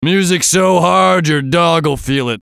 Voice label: VOICE COMICAL; Radio Voice; Blastwave

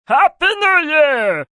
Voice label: New Year, Male; Holiday; VoiceBox

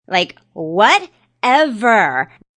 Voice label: Young Lady Like Whatever, A young lady says; Talking

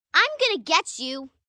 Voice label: Im Gonna Get You, 1 girl, 9 yrs; Talking Sound Effects; Sound Effects:Voice; VoiceBox

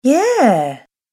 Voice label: "Yeah", Positive Female Voice; Recorded Voice

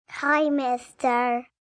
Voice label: Hi Mister Kids, child, girl; Talking Sound Effects; Sound Effects:Voice; VoiceBox

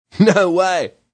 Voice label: No Way Male, Exclamation; VoiceBox

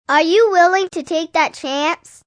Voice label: Kids Take Chance, Male, child; Talking Sound Effects

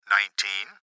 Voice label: Gps Voice, Male

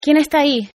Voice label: Female There Spanish, Hispanic Female Saying; Talking Sound Effects

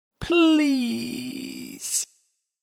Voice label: Please Spoken, Male voice saying ‘Please’.; Etiquette

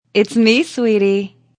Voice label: Woman Says Its Me Sweetie; Woman talking; Voice

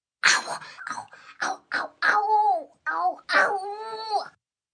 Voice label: Pain Whisper Ow, Ow, Ouch, 1 child, several; VoiceBox

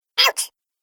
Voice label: Cartoon Game Voice "Ouch"

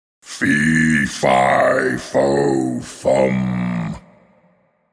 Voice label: Fairytale Ogre, saying ‘Fe Fi Fo Fum’; Giant from Jack And The Beanstalk saying ‘Fe Fi Fo Fum’; Miscellaneous Cartoon

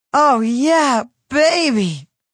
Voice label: Oh Yeah Baby, Female; Sex; VoiceBox

